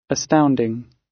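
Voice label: "astounding", British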